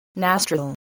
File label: "nostril", voice, spelling